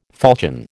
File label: "falchion", American